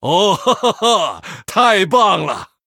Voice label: Male Human Wow, zh

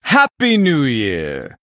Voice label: Male Blood Elf HAPPY NEW YEAR; en

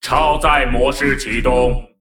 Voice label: Male Mech Trigger; zh

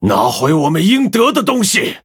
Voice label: Male Human Attack; zh

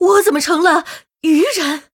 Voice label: Female Murloc Start Mirror, zh

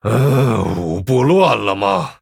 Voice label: Male Night Elf Oops; zh

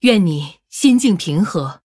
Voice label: Female Human Greetings, zh

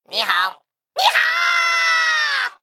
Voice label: Male Mech HAPPY NEW YEAR LUNAR; zh

